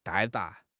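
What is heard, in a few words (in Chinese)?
"dai4", Chinese; 汉语口语新时代-初级下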